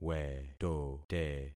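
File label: "wetote", concatenated syllables; Affixing experiment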